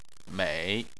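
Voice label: "mei", Chinese, third tone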